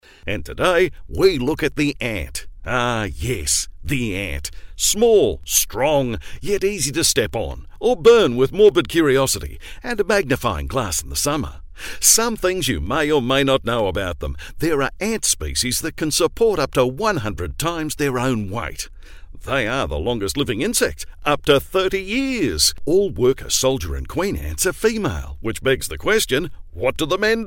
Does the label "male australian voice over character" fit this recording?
Yes